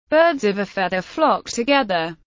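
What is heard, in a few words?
"Birds of a feather flock together", English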